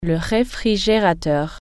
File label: fridge, French